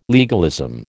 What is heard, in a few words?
"legalism", American